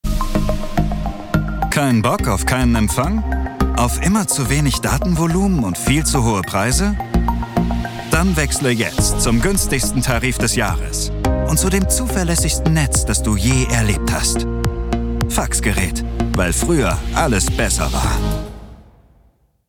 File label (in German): Werbung Fax; LOFT VOICES